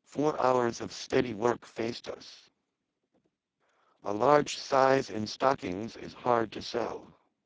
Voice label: speex codec